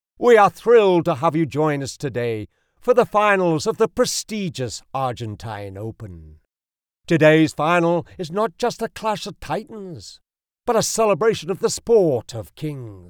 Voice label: Announcer, Live Announcer, Polo Game Intro, British Male Voice